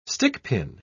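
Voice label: "stickpin", English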